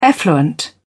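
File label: "effluent", British English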